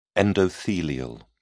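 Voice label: "endothelial", British English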